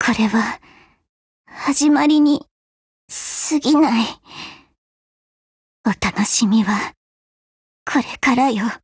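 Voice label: DEAD Japanese, Voice; GF Alarm; Dreamer buhuo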